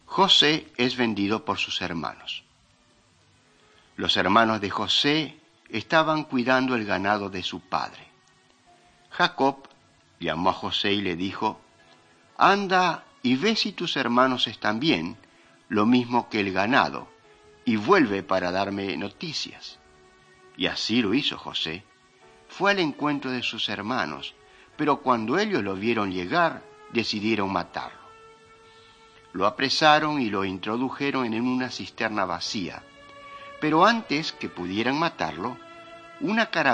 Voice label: mighty men of god spanish latin america